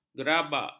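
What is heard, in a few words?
"gerabak", Malay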